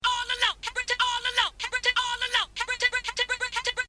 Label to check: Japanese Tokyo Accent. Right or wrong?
Wrong